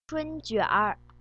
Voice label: spring rolls, mandarin